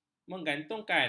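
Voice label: "menggantungkan", Malay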